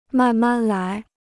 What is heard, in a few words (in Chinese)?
"慢慢来", Chinese